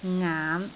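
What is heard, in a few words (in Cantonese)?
"ngaan5", Cantonese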